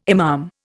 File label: "imam", Indian